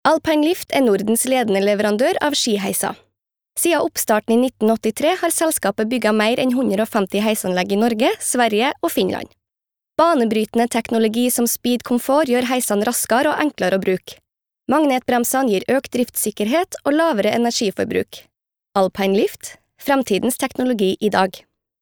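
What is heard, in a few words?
Norwegian corporate trondersk; norsk; kvinne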